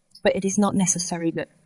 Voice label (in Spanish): Voice Acting es